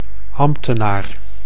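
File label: "ambtenaar", Dutch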